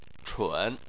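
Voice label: "chun" third tone, Chinese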